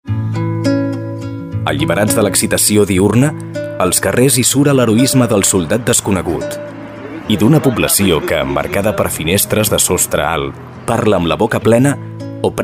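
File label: spanish catalan male; dictor-sounds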